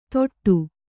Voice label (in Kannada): "ತೊಟ್ಟು", kannada